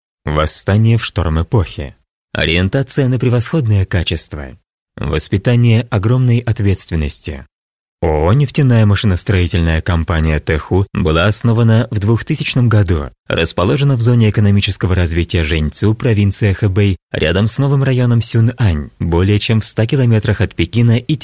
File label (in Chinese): 俄语男声